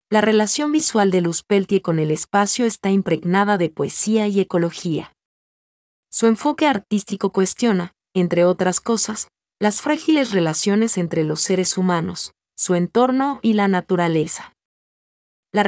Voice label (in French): espagnol